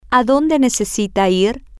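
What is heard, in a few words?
toWhereDoYouNeedToGo, Spanish, female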